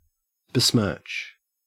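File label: "besmirch", Australian English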